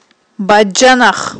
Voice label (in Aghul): "баджанах", Aghul